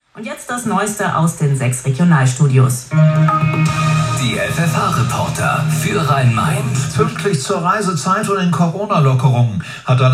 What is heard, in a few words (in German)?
Nachrichten; FFH